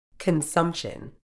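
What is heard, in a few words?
"consumption", British English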